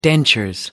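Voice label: "dentures", British English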